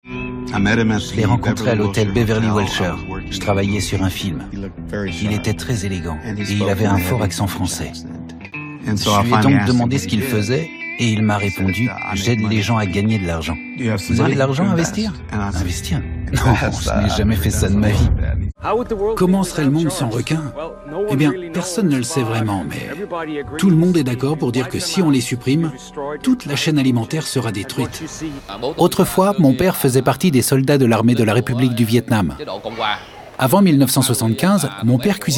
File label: Voice over